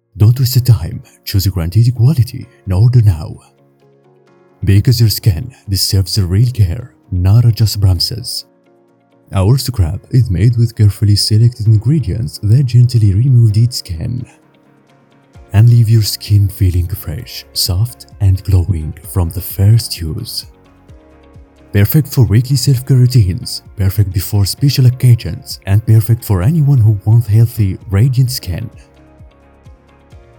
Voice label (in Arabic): تعليق صوتي